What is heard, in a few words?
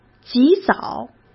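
"及早", Mandarin Chinese; chinese-flashcards; hsk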